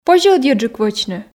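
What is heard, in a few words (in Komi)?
позьӧ ӧдйӧджык вӧчны, Komi, female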